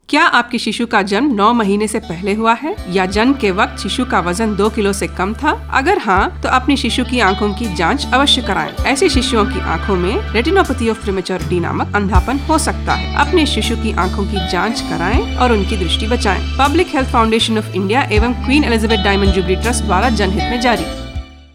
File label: PUBLIC HEALTH HINDI